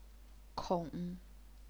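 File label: "kong", Chinese, third tone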